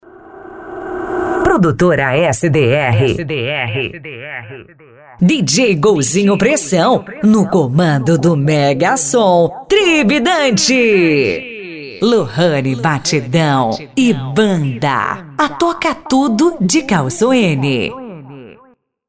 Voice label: VINHETA OFF ♀; São vinhetas sem efeitos sonoros de fundo, voz tratada, equalização e limpeza de ruídos

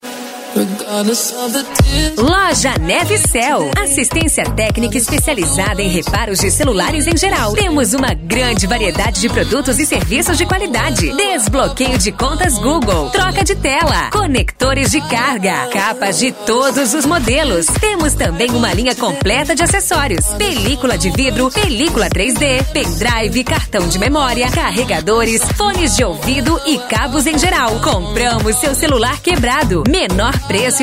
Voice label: locutora, comerciais; NEVES CELL

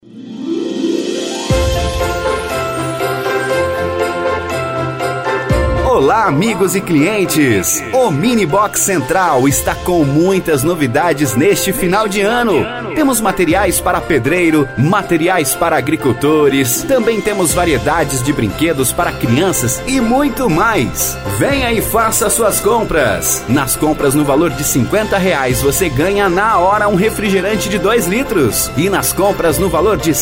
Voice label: LOCUTOR, comerciais; MINI BOX CENTRAL

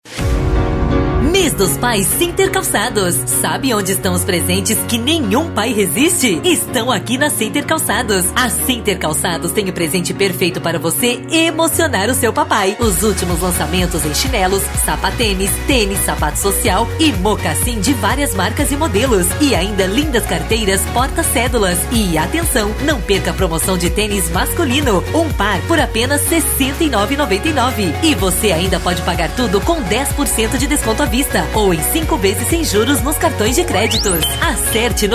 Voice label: LOCUTORA, comerciais; CALCADOS; CENTER CALCADOS

